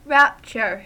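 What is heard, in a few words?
"rapture", US English; pronunciations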